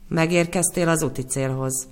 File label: finish, Gps